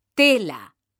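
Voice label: "tela", Spanish, female; mekanta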